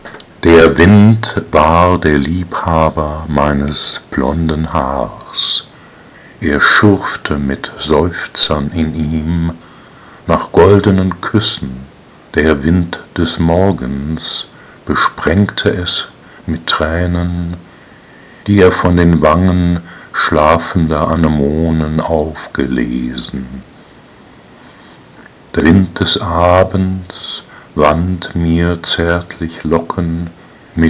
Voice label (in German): Die weibliche Stimme